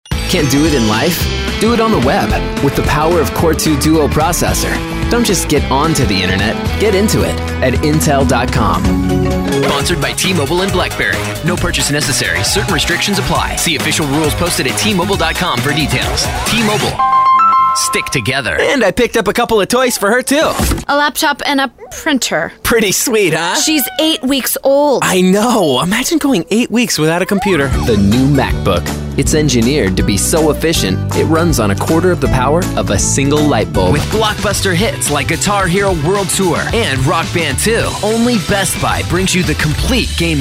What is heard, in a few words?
Commercial